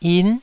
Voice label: "yin9", Taiwanese